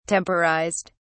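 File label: "temporized", British English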